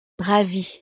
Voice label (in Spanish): "ravie", frances; estados animo